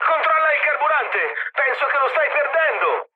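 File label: Italian tank damaged mood high, speech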